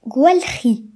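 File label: "wash", Breton; gcompris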